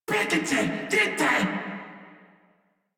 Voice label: Cover Me Crewmen en; Warframe; Corpus Crewmen; Crewman D